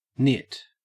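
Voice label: "nit", Australian English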